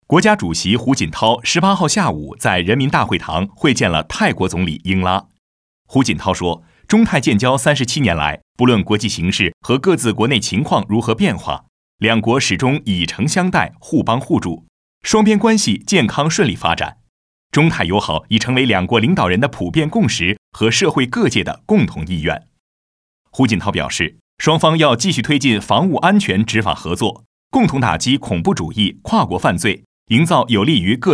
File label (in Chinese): 男 新闻, 国语男声配音 大气浑厚